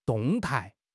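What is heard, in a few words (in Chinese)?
"动态", Chinese; HSK6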